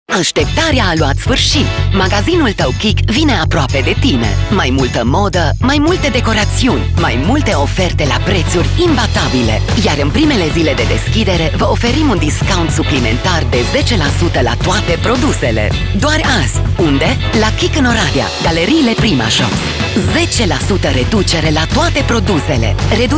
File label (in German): Rumaenisch Werbung Off; LOFT VOICES